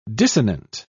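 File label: "dissonant", English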